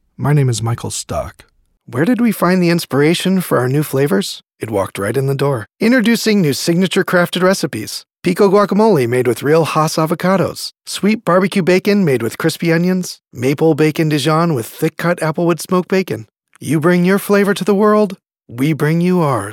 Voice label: Ads Voice Over